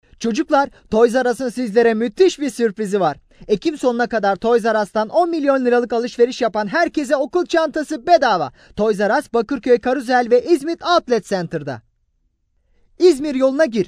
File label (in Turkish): ERKEK